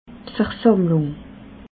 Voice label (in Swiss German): "versammlung", alsace